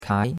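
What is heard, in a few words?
"kai2", Chinese; Cours de Chinois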